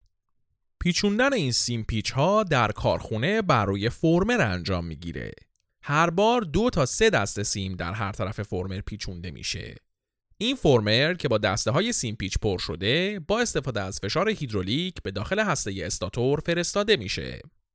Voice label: Farsi M